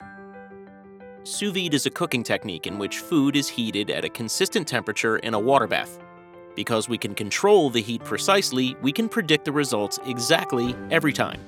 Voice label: Explainer